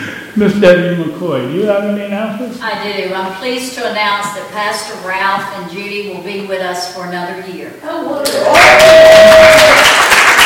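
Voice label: Announces, church service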